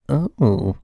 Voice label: human voice male camp says "ohhh"